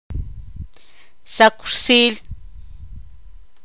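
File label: "cinco", Tlacochahuaya Zapotec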